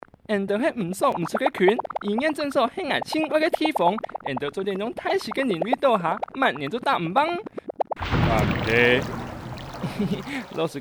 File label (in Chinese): 洗手戲劇 客語版